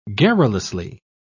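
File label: "garrulously", British English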